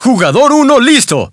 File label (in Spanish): Spanish - dialogue, Player ready; Birthday Party Bash; Wii